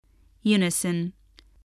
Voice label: "unison", spelling